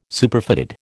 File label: "Superfatted", American